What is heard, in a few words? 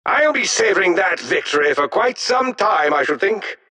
announcer victory; dlc defensegrid